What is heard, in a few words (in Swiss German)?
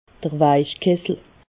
"weichkessel", alsace